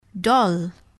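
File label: "doll", English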